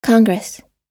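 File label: "congress", English; oxford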